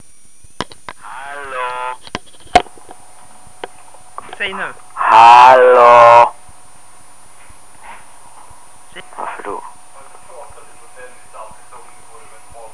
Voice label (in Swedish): telefonsamtal